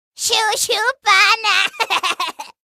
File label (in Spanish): voz latina; gnar; league of legends